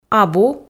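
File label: "абу", Komi, female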